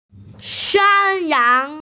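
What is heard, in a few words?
"shan1yang2", Mandarin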